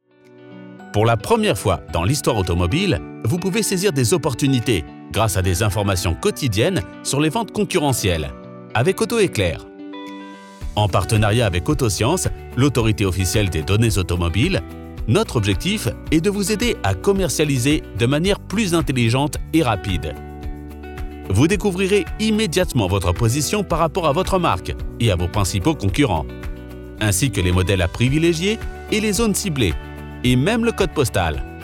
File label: Corporate, French Male Voice Over; Auto Eclair